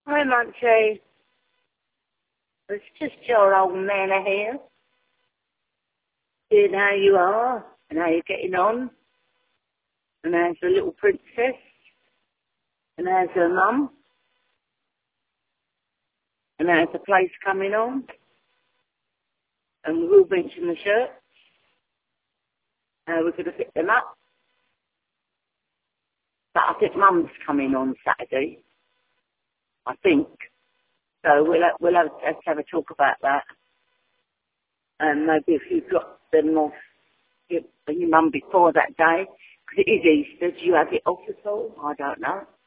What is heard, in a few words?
voicemail